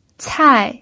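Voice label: "cai", pinyin, fourth tone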